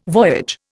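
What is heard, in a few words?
"voyage", Indian English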